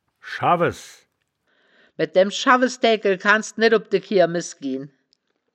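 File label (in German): "schawwes", wittlicher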